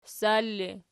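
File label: ssar ergative, Archi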